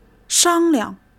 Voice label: "shang1 liang", Chinese

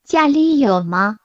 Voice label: Mandarin Conversation

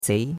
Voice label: "zei2", chinois; Cours-de-Chinois

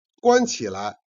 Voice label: "jian", Chinese

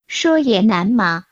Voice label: Mandarin Conversation

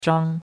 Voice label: "zhang1", chinois; Cours-de-Chinois

